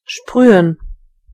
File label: spray, de; gcompris